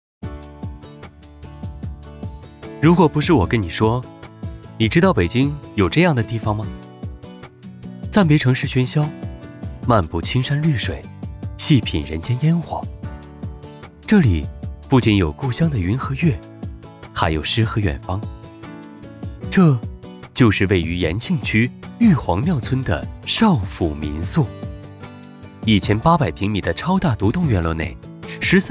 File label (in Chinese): 解说 年轻 民宿; 绍府民宿